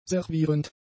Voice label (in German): "servierend", German; adjectives